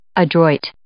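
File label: "adroit", English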